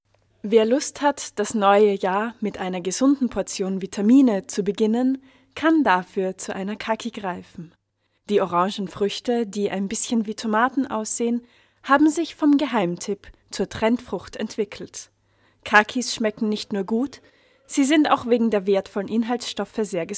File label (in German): Doku; Kaki